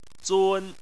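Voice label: "zun" first tone, chinese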